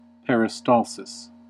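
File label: "peristalsis", US English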